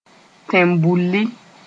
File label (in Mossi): "tẽn-bulli", Moore